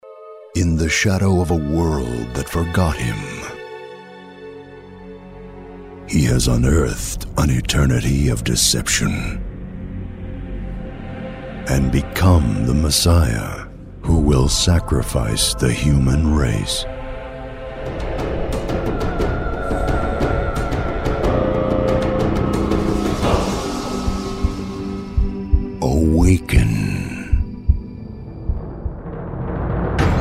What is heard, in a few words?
Trailer